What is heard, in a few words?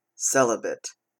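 "celibate", US English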